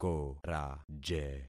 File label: "koraje", concat syllables; Affixing experiment